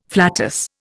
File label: "flatus", Indian